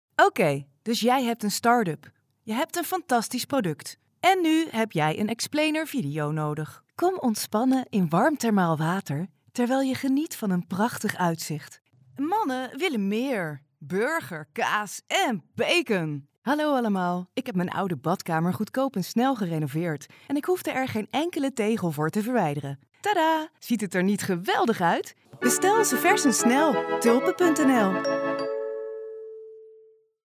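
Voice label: Dutch, Netherlands, female, voice over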